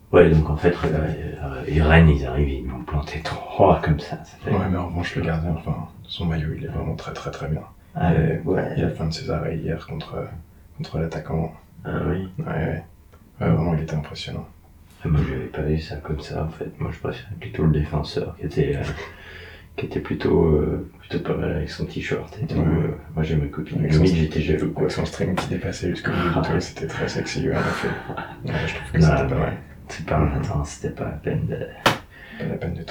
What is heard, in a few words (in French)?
Conversation 2 pers courte, French, Crowds